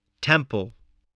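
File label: "temple", English word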